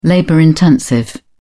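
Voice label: "labor-intensive", British English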